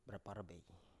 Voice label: "braparbey" Biak